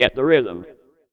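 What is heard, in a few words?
vocal male, Dance Vocals, spoken words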